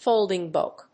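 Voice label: "Folding book", English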